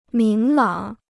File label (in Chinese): "明朗", Chinese